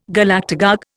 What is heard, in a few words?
"Galactagogue", Indian